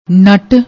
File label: "nut", Punjabi; ਨਟ